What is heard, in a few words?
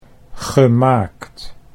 "gemaakt", Dutch